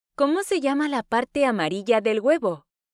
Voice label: Spanish Listening; Spanish Test